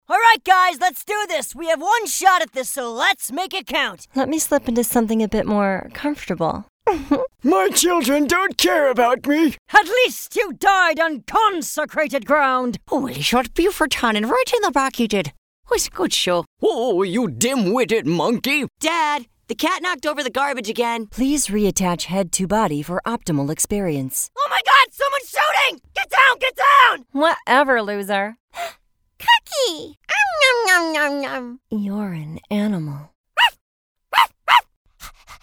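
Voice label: animation character, nonbinary; voices men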